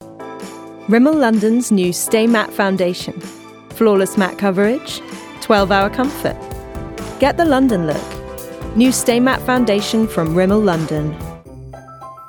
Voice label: Medical, medical voice over